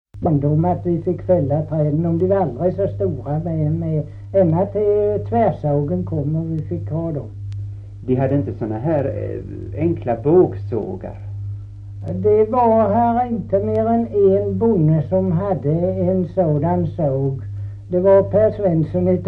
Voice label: Kristianopel BLEK, dialekter; Folkminnen

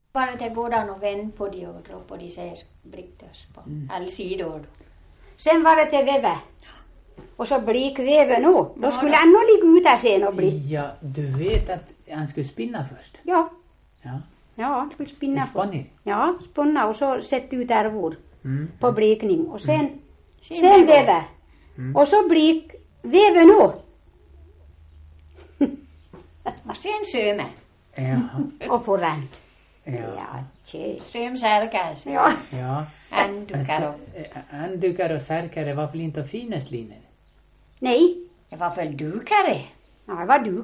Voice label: Rättvik, dialekter; Svenska sagor; DAL; Folkminnen

